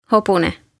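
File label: "hobune", Estonian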